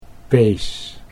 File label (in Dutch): "pees", Dutch